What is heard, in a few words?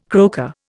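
"croaker", Indian English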